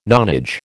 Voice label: "nonage", American

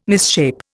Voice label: "misshape", Indian

